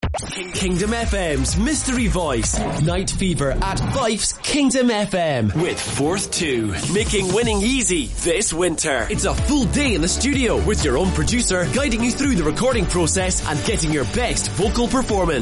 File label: Scottish English M Radio Ad, accent of scotland